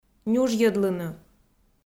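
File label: "нюжйӧдлыны", Komi, female; kpv audio dict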